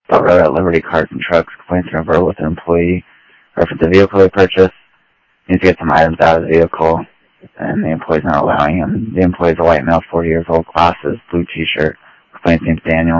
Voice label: Phoenix PD, scanner audio